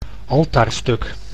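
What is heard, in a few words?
"altaarstuk", Dutch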